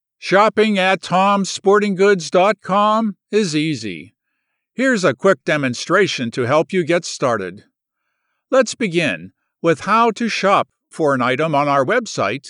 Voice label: Explainer How To Friendly